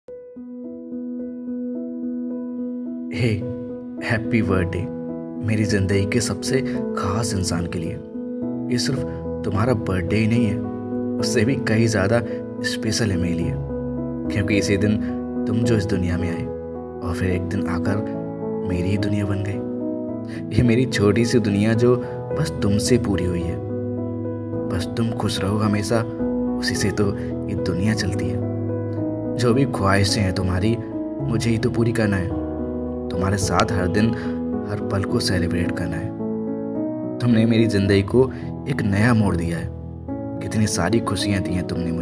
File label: Happy birthday special person Birthday wish poetry; status for loved one